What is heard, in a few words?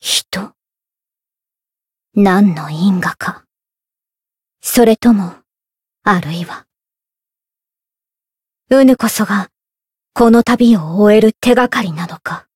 Voice story jp; eva